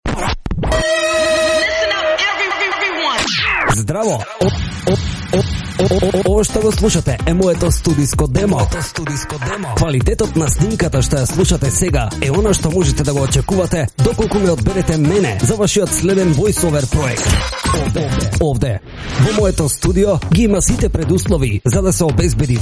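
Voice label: Macedonian, male, commercial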